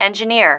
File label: "engineer", female